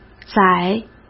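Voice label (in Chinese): "宰", Mandarin Chinese; hsk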